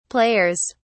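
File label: "players", British English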